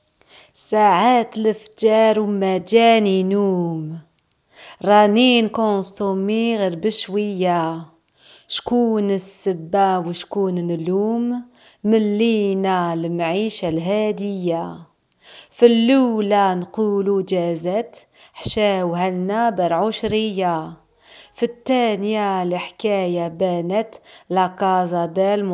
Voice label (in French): rythme parlé